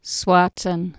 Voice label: "swaetan", Anglo Saxon